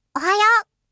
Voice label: "ohayo", voice; aisatsu